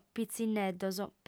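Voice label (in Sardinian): "pitzinneddos", female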